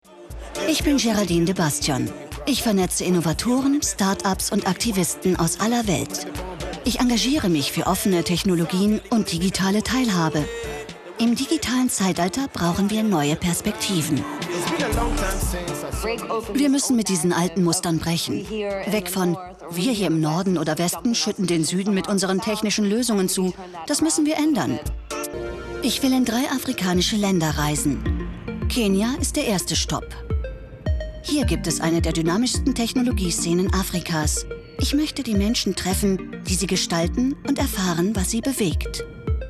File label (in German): Doku Kommentar; Digital Africa; Arte; LOFT VOICES